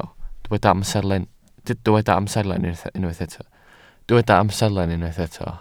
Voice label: "amserlen", Welsh